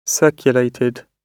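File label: "circulated", British English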